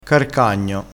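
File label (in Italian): "carcagno", dialettale crespinese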